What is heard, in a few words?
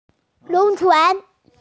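"ship", female; lun2chuan2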